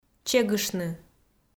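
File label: "чегыштны", Komi, female; kpv audio dict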